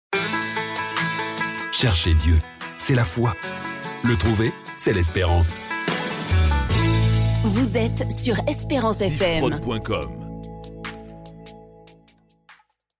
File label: Jingle; Esperance FM